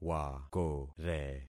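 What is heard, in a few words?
"wakore", concatenated syllables; Affixing experiment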